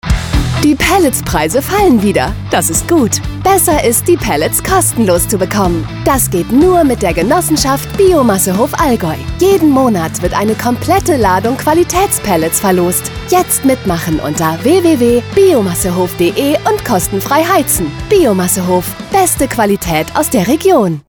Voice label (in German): Gewinnspiel Radio; Biomassehof